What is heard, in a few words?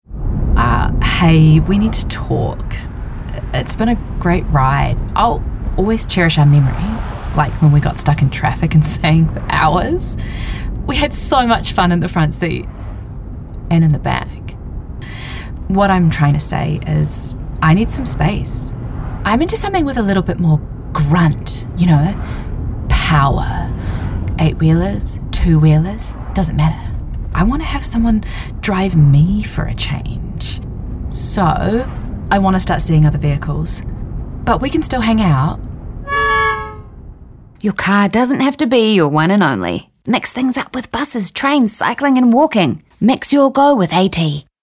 Radio Mix